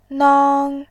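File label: "nang", Mandarin, tone 1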